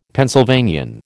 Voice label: "Pennsylvanian", American